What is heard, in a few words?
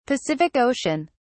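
"Pacific Ocean", British English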